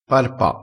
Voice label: "parpa", crespinese

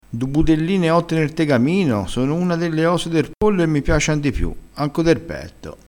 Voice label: "piaciano", crespinese

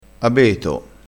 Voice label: "abeto", Crespinese

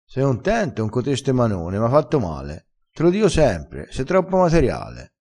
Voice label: "materiale", dialettale crespinese

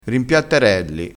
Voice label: "rimpiattarelli", crespinese

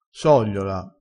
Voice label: "sogliola", vocabolario dialettale

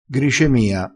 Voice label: "gricemia", crespinese

